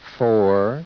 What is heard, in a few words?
SPEECH ENGLISH